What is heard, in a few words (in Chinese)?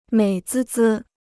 "美滋滋", Chinese